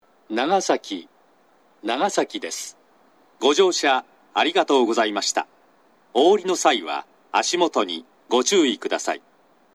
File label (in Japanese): 到着放送 男声, 長崎 西九州新幹線ホーム 自動放送